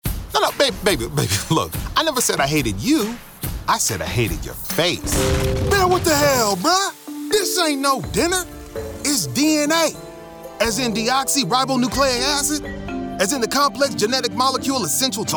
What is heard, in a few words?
animation men character